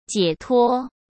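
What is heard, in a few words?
"解脱", Mandarin Chinese; hsk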